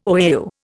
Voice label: "OAU", Indian